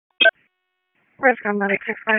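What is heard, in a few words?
EMS Dispatch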